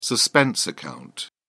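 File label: "suspense account", British English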